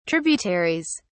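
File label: "tributaries", British English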